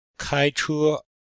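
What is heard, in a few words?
"kai1che1", Chinese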